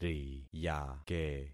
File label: "riyake", concat syllables; Affixing experiment